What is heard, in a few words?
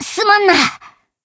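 Voice healed, Japanese; morgana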